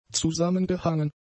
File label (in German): "zusammengehangen", German; adjectives